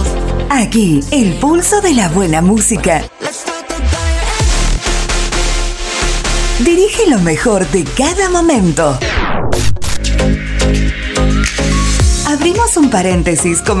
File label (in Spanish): Arte Ambient FEM Verano; verano 2026